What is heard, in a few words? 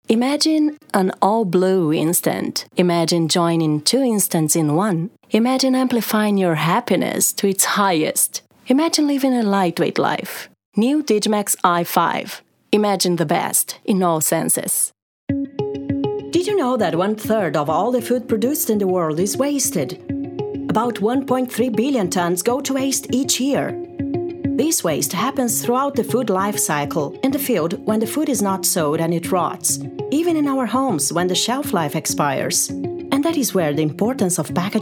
English